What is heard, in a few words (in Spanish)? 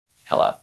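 Voice Acting es F, Spanish, female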